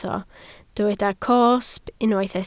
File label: "cosb", Welsh